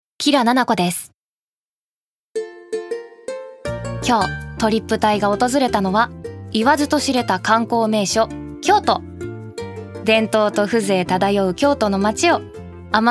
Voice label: ナチュラル

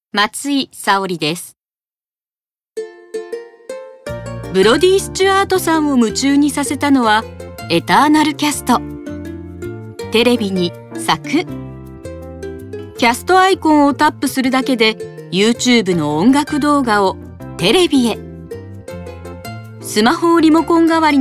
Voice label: ナチュラル

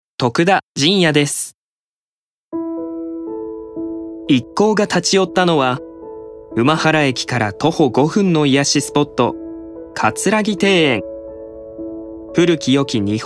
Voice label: ノーマル

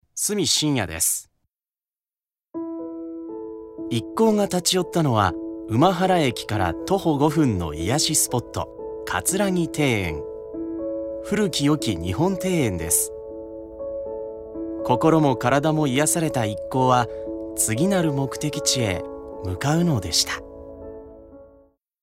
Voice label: ノーマル

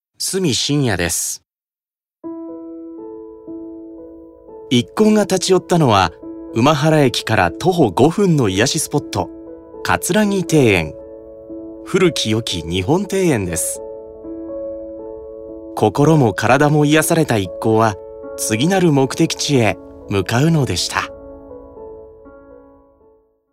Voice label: ノーマル

